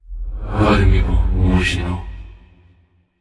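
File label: sad, voice; aragami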